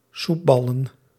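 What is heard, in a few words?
"soepballen", Dutch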